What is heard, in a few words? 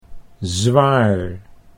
"zwaar", Dutch